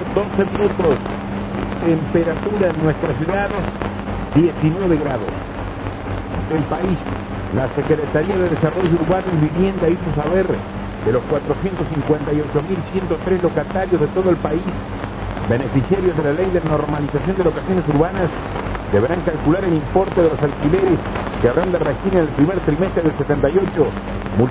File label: Radio Venado Tuerto; LT29; ARG